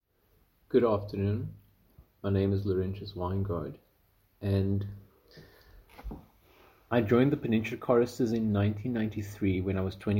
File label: voicenote